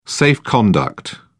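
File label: "safe-conduct", English, British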